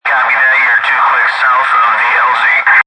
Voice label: Military Radio Communication Short Wave Radio Walkie Talkie; Radio Dispatch Sound Effects